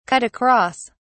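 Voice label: "cut across", British English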